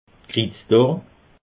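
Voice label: "krizdorn", Alsatian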